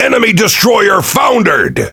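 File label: Pirate Speech Destroy Enemy Destroyer, English pirate; World Of Warships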